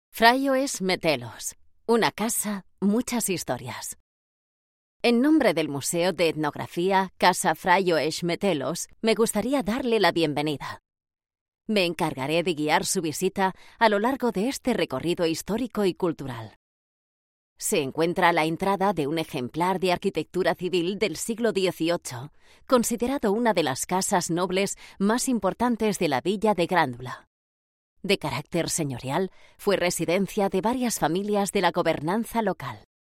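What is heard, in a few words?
spanish audio guides, female; professional Spanish and Catalan voice actor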